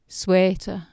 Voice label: "swete", Anglo Saxon

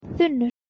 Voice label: "thynne", Anglo Saxon

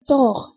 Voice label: "toR", Armenian

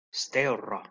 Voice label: "steorra", Anglo Saxon

